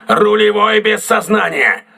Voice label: voice message helmsman contused, crew ships russian